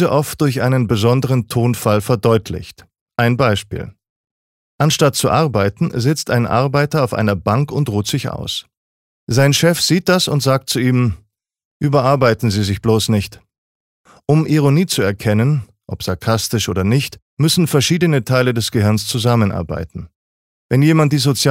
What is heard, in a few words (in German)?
Sarkasmus